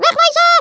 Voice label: "grenade", Dutch, speech; Worms Armageddon